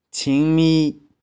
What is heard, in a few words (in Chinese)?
"ching1mi3", 玉環